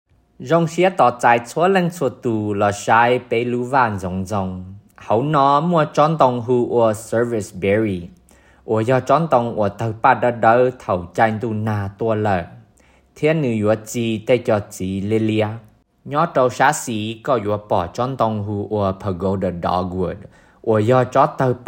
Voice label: Summer Shade Hmong